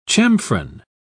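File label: "chamfron", British English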